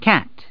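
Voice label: "cat", English word; 単語絵カード * どうぶつ; ねこ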